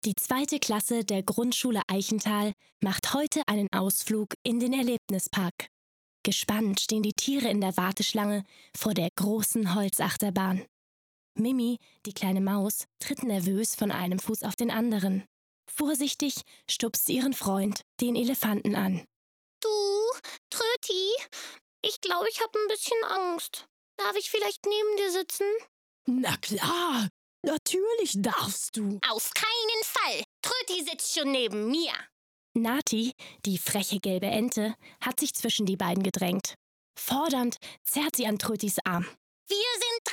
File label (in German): hoerspiel